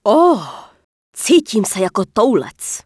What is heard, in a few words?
Human Female err no ammo; wowpreklad; czwow; FemaleErrorMessages